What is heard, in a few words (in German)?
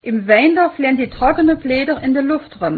osthessisch